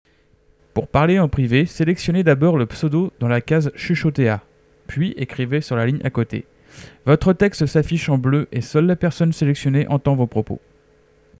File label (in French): chuchoter